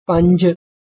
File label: "panj", Punjabi